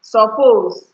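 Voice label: "suppose", Nigerian Pidgin, female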